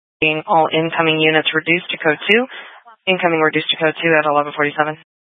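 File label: Incident Tactical 1, Scanner audio recording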